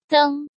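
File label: "Dēng", Chinese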